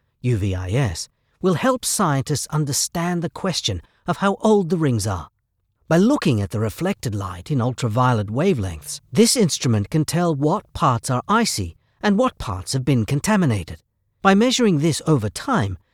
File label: info british